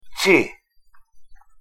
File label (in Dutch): "tii", Kalaallisut; thee-in-andere-talen